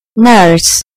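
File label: "nurse", British English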